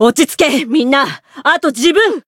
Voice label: Voice variable chant jp